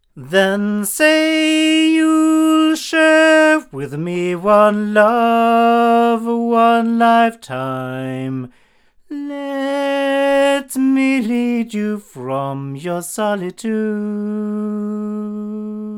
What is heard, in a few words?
male; microphones; violet designs